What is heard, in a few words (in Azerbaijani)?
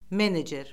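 "menecer", Azerbaijani; Orfoqrafiya Lüğəti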